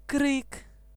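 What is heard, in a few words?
scream balloon, Belarusian; tuxpaint-stamps; symbols; shapes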